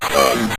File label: "stunned", synth voice; Kenney's Sound Pack